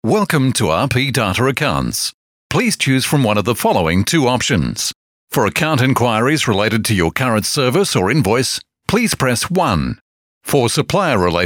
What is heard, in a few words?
IVR